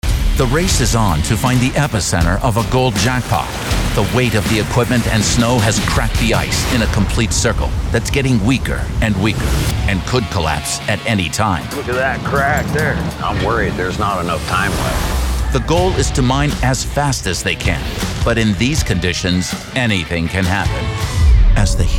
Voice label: In Show Narration, classic VO sound while also not being too over the top for modern sensibilities